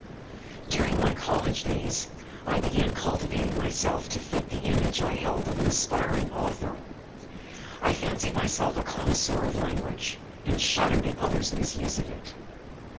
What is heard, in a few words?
male noisy